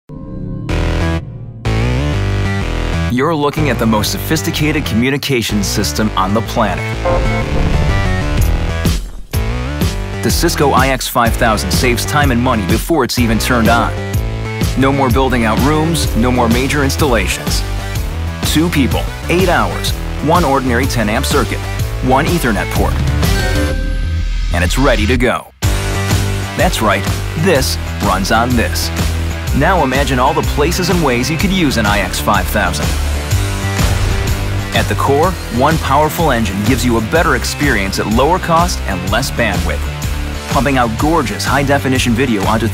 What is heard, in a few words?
Male 25 35 years Medium